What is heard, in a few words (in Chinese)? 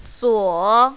"suo3", mandarin, female